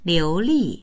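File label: "liu2li4", Chinese; 流利; 流暢な、なめらかな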